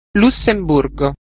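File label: "luxembourg", Italian; gcompris-data; geography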